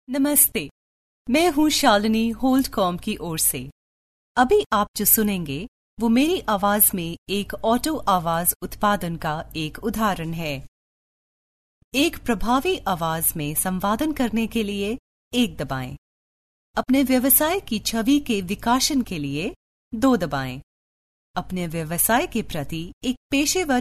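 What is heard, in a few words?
Hindi, female, IVR; voice over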